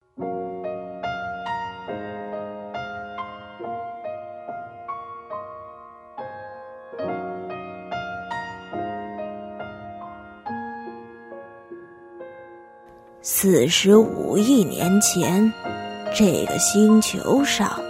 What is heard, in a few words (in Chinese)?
女, 角色, 模仿老人和小女孩; 素人自然; 大鱼海棠